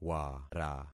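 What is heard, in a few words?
"wara", concatenated syllables; Affixing experiment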